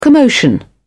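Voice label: "commotion", British English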